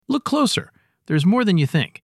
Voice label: voice sample, A smooth tenor pitch from a man in his 40s; Eric; Shot Studio